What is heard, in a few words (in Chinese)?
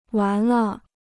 "完了", Chinese